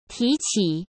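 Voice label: "提起", Mandarin Chinese; hsk; chinese-flashcards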